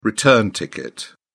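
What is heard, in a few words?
"return ticket", British English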